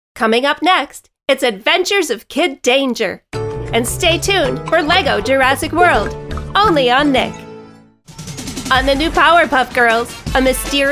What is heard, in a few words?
Promo